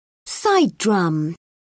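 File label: "side drum", British English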